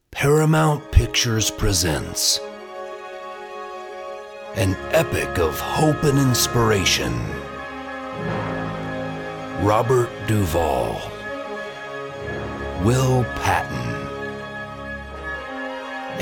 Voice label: Male, promos